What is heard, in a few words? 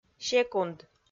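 "секунд", Komi, female; kpv audio dict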